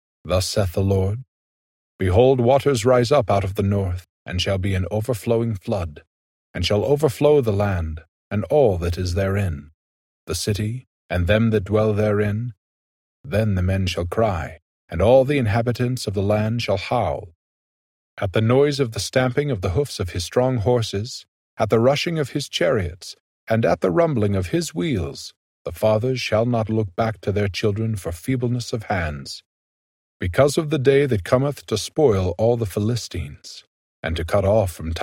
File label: jeremiah male voice; jeremiah 47; the old testament